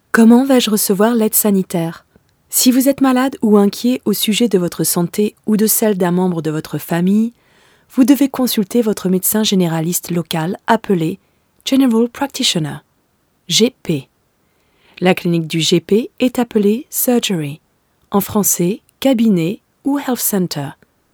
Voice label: female French corporate e-learning compilation; voices

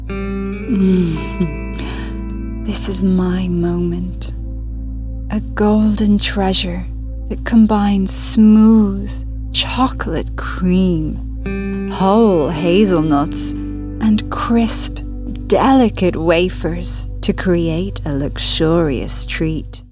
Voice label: female Irish Irish accent commercial; irish southern

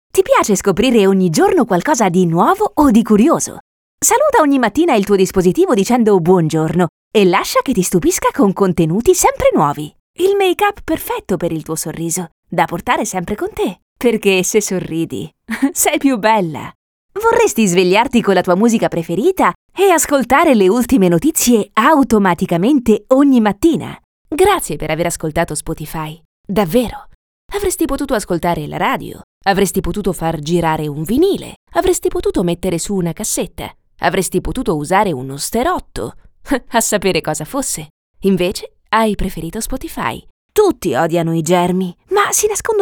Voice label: female Italian commercial compilation

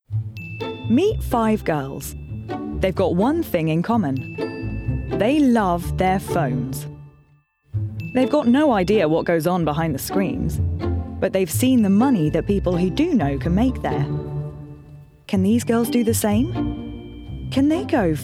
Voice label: female English documentary narration compilation

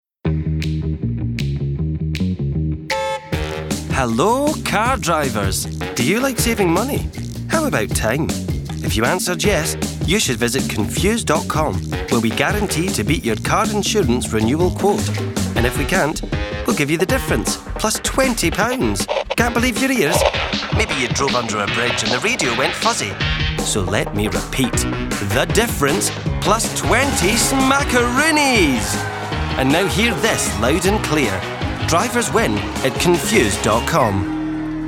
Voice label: male english scottish accent commercial; confused com

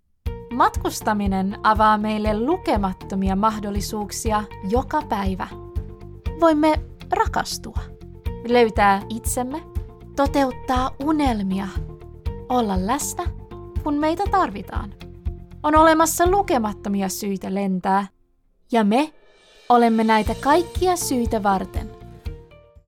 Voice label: female finnish commercial; finnair

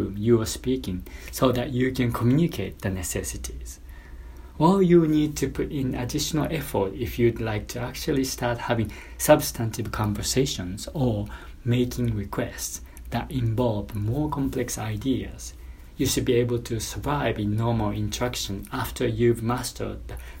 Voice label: male, English with Japanese accent, e-book

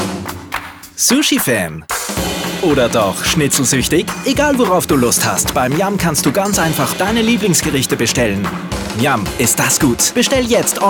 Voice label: male austrian german commercial

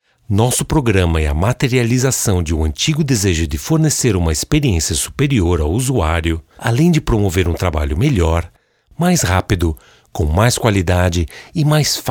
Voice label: male, Brazilian Portuguese corporate

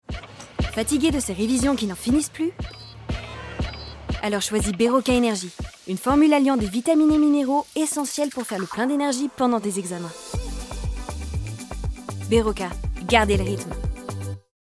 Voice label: female french commercial; Beroccar

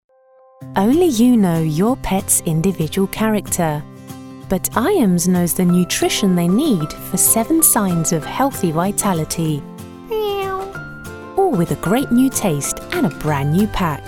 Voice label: female English commercial; Iams; Greek

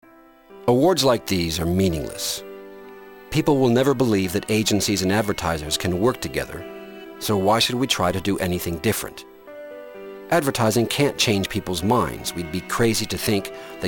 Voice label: male english usa commercial; axa

